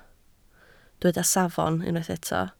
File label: "safon", Welsh